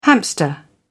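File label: "hamster", British English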